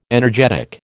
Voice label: "energetic", American

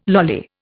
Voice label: "lolly", Indian

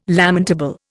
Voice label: "lamentable", Indian

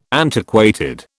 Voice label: "antiquated", British

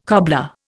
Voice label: "cobbler", Indian

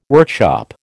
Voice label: "workshop", American

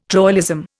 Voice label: "troilism", Indian

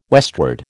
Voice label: "westward", American

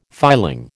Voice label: "filing", American

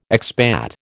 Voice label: "expat", American

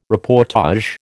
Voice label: "reportage", American

